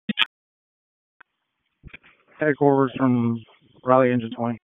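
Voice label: Fire Department HQ Dispatch; RFD HQ DISP; wake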